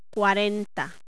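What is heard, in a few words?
"Cuarenta", Spanish; Time Instructor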